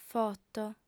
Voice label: "foto", audiofem